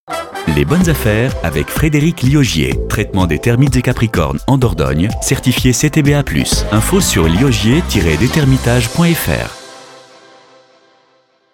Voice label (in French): Spot radio